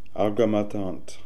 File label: "Algamatant", Beelzebub pronounciations; Fourth Way